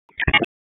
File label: Police Tac, p25; Raleigh; Southeast District Tac 1; wake